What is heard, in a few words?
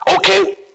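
"okay", male voice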